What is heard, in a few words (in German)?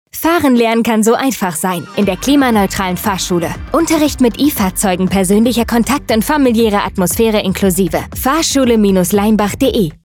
Funkspot, Fahrschule; Fahrschule Leimbach